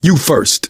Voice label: lead on, survivor voice; source engine; left 4 dead 2; manager